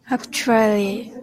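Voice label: "actually", Japanese speaker of English, female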